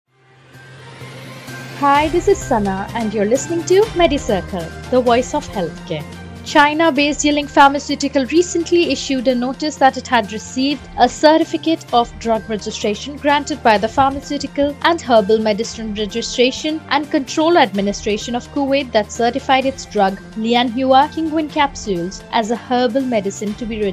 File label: english news